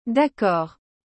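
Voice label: "Daccord", French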